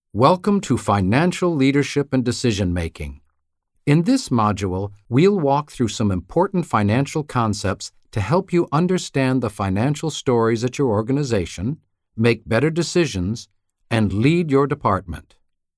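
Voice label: VO M, voice-overs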